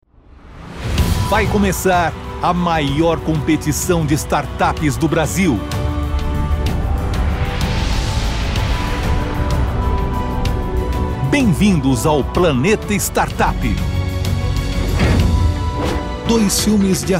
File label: promos